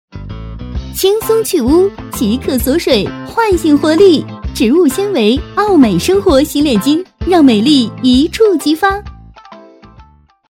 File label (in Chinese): 女, 广告配音, 洗脸巾, 轻松欢快